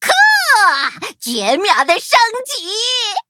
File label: levelup zh-hant; cookie run